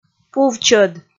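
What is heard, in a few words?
"пув-чӧд", Komi, female; kpv audio dict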